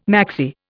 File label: "maxi", Indian